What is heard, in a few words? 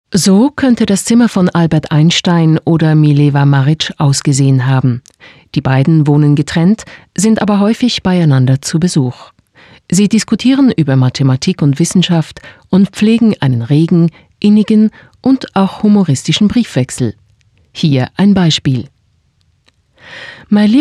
Audioguide